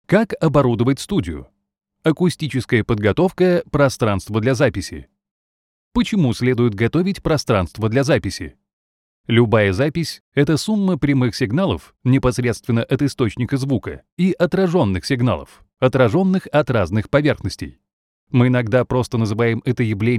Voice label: Elearning